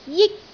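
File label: "jik1", Cantonese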